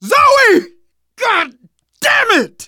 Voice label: grief teen girl, manager, survivor, voice; Left 4 Dead 2; left4dead2 dlc2